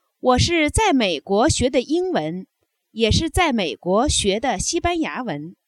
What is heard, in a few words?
adverb, Chinese